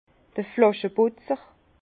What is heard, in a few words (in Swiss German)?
"flascheputzer", Bas Rhin; vin; rince bouteille